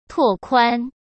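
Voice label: "拓宽", Mandarin Chinese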